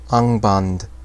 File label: "Angband", Sindarin